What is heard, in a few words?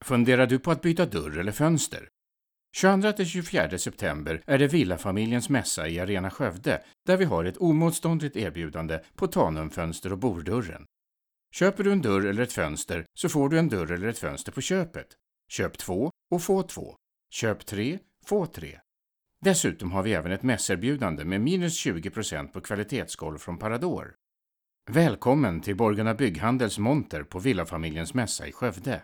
male swedish trade fair commercial; borgunda bygghandel